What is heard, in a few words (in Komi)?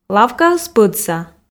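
лавкаыс пӧдса, Komi, female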